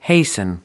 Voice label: "hasten", us